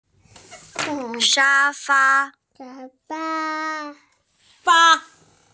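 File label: "sha fa", Mandarin Chinese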